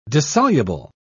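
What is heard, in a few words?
"dissoluble", British English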